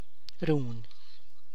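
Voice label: tlacochahuaya, Zapotec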